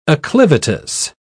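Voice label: "acclivitous", British English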